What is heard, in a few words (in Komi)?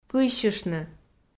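"кыйсьыштны", Komi, female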